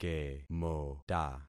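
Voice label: "kemota", concatenated syllables; Affixing experiment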